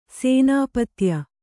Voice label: "ಸೇನಾಪತ್ಯ", kannada

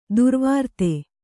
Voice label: "ದುರ್ವೃತ್ತೆ", kannada

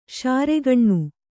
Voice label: "ಶಾರೆಗಣ್ಣು", Kannada

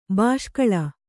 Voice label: "ಬೆಸಕೋಲು", Kannada

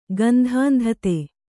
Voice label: "ಗಂಧಾಂಧತೆ", Kannada

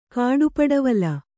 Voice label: "ಕಾಡುಪಡವಲು", Kannada

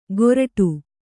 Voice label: "ಕೋರ್ಟು", kannada

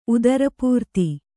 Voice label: "ಉದರಪೂರ್ತಿ", Kannada

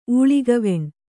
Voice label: "ಊಳಿಗವೆಣ್", Kannada

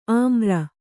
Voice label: "ಆಮ್ರ", Kannada

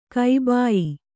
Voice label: "ಕಬಾಯಿ", kannada

